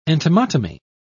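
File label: "entomotomy", English, British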